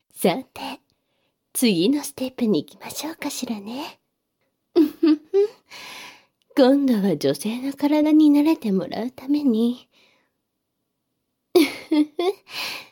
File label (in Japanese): 耳舐め手コキでカウントダウン射精（バイノーラル編集 効果音あり）, ASMR